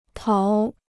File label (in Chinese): "逃", Chinese